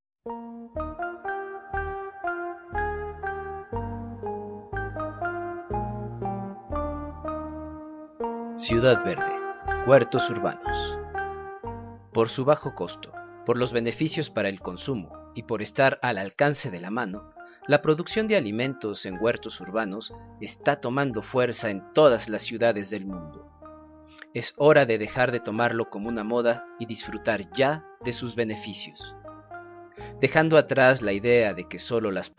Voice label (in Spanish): comercial completo; ciudad verde